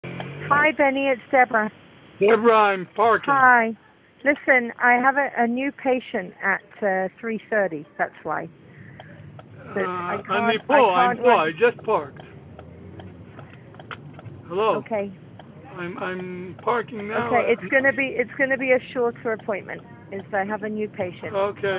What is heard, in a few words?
call recording